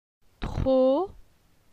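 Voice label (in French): "Tro", French; phonetique; la syllabe o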